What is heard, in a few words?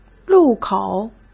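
"路口", Mandarin Chinese; chinese flashcards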